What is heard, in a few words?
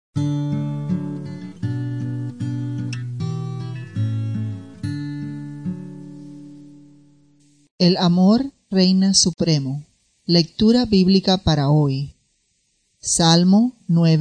devociones devotions spanish latin america